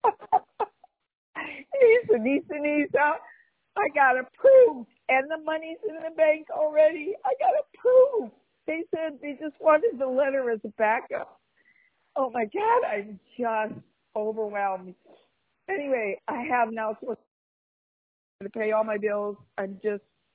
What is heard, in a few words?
voicemail